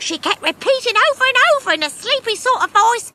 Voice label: she kept repeating over and over in sleepy voice

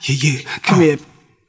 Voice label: "yeah yeah come on", voice; ooh ahh

